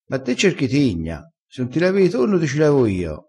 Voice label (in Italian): "cerca tigna", crespinese